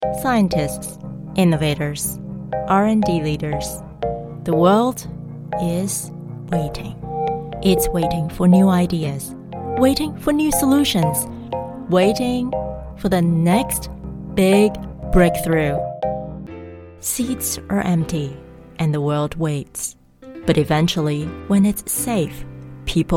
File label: Corporate Narration